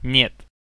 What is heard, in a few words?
"net" Russian